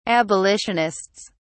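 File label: "abolitionists", British English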